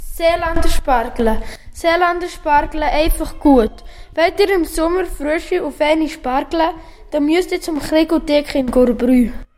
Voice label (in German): Werbung Spargel Dick; Golaten